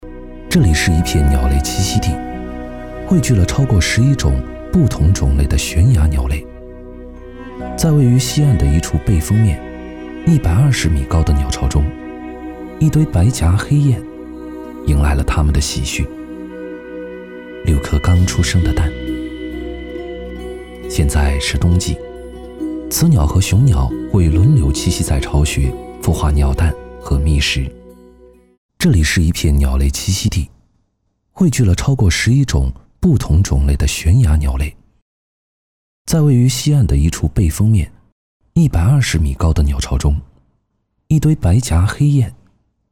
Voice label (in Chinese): 鸟类纪录片